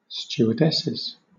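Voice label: "stewardesses", English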